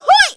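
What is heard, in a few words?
voice unbalance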